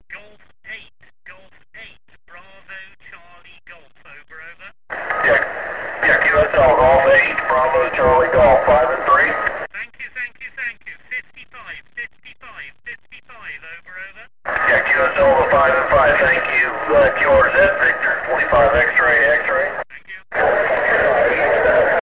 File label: qso